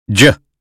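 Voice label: American phonetics sound jump; 英语音标发音（剑桥版）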